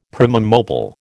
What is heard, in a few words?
"primum mobile", American